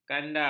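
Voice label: "kandar", Malay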